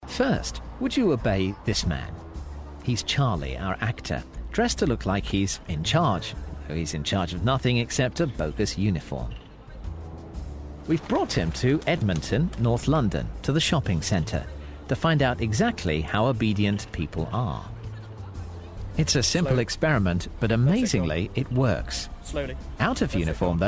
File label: documentary voiceover; the human zoo